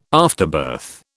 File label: "afterbirth", British